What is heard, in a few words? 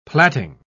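"platting", British English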